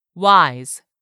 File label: "wise", English; 3000 words